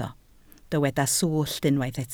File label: "swllt", Welsh